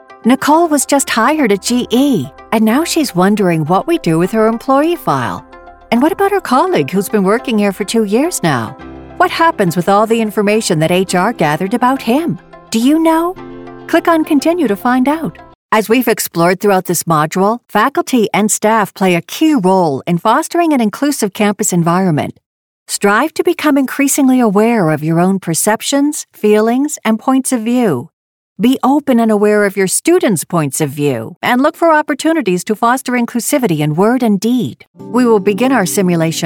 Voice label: ELEARNING